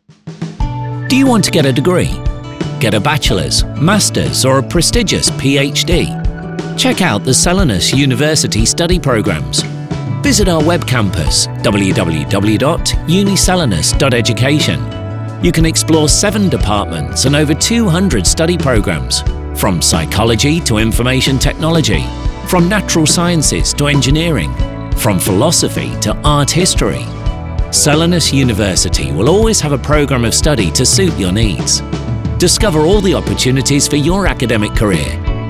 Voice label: Institutional Spot, ENG; Uniselinus